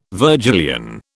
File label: "Virgilian", British